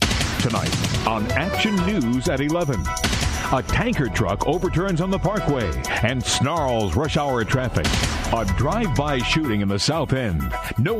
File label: TV imaging